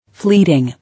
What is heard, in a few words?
"Fleeting", English